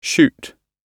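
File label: "shoot", British English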